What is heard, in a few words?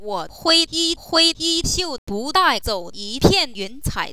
mandarin; ekho; yali